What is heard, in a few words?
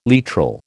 "literal", American